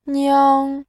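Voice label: "niang", Mandarin, tone 1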